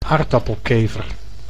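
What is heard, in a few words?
"aardappelkever", Dutch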